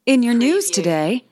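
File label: News Female News Today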